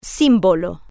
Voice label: "simbolo", Spanish

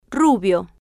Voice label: "rubio", spanish

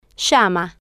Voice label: "llama", spanish

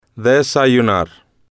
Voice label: "desayunar", Spanish

